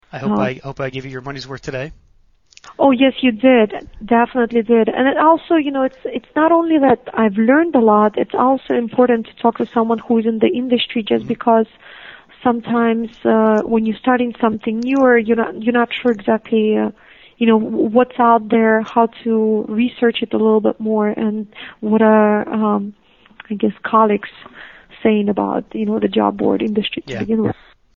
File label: testimonial; zibraa